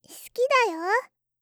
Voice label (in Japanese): 好きだよ～, 告白